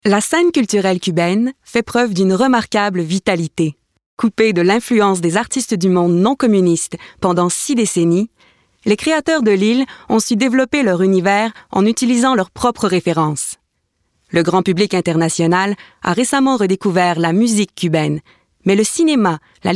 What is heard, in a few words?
narration